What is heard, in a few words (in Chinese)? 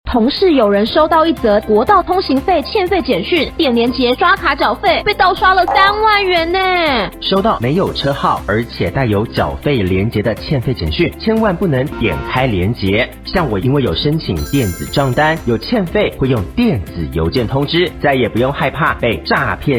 國語; 交通部; 反詐騙及平信電子帳單